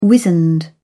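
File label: "wizened", English, British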